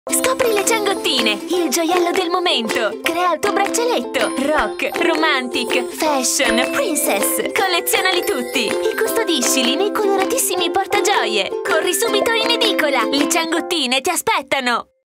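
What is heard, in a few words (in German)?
Italian, Fremdtake Kindlich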